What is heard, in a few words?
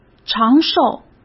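"长寿", Mandarin Chinese; hsk; chinese flashcards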